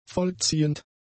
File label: "vollziehend", German; adjectives